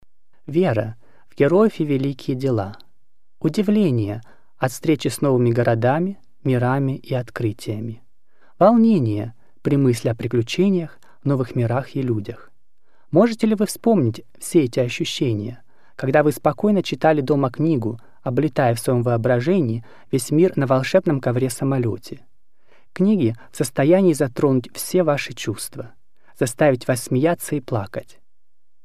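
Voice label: Russian, Narrative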